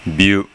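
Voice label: "byu", Japanese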